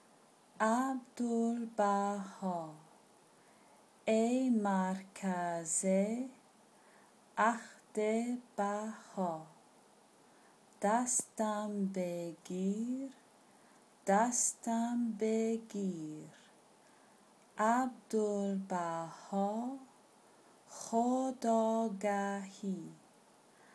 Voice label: persian pronunciation slow; hold thou my hand